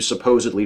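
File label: "supposedly", US English; pronunciations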